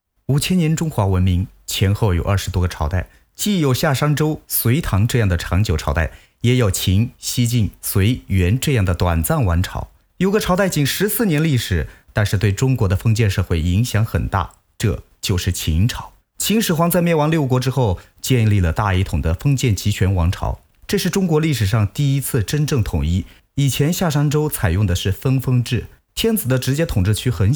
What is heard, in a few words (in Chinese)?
专题片/宣传片-男-真人商业配音; 秦朝